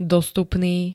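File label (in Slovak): "dostupný", Slovak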